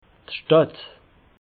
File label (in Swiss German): "stadt", alsace